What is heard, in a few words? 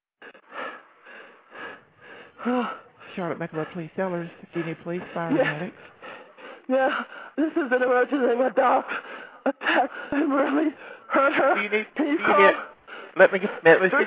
dog attack 911 call